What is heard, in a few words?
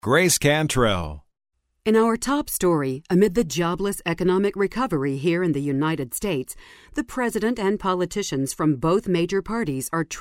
NEWS ANCHOR